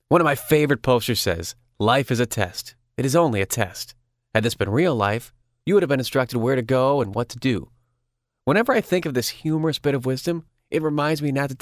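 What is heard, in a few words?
American English, male, voice over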